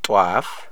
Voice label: wax taper, amharic

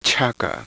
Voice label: palatoalveolar aspirated, quechua

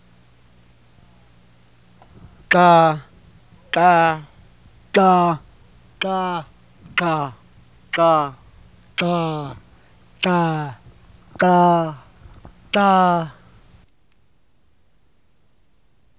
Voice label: xoo plain

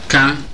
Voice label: older more, Igbo

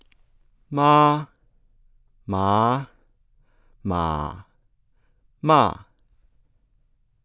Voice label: tone voiced, mandarin tones

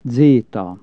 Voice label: zeta, hungarian Consonants

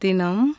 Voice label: "day", Tamil consonants; JIPA

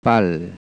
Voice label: sucking, Korean

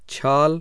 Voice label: hindi

